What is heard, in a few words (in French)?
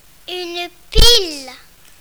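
"pile", French; une pile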